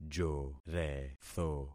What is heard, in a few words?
"joretho", concat syllables; Affixing experiment